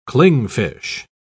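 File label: "clingfish", British English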